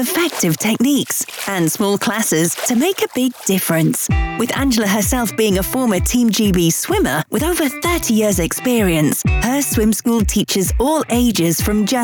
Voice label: adult radio commercial